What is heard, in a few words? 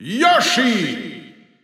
German Announcer; Yoshi; SSB4-SSBU